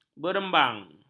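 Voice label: "berembang", Malay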